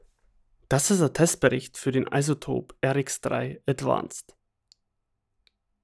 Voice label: dialogue denoiser; izotope rx 3 advanced

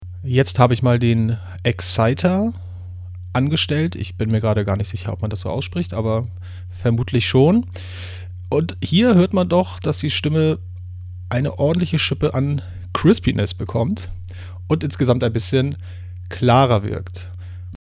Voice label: rode podmic hd usb excit

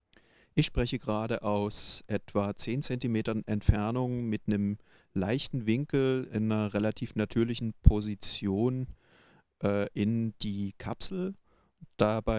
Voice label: plosive; img stageline txs-871

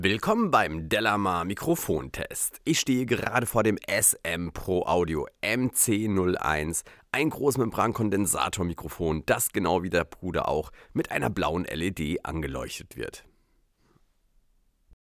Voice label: speech m; smproaudio mc01

